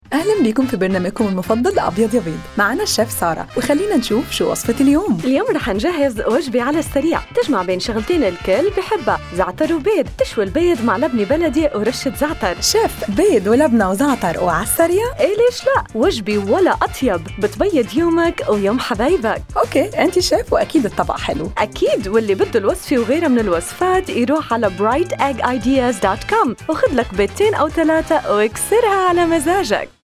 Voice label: Arabic Spot; EggFarmer; Eggs AR; EFC